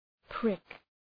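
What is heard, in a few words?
"prick", English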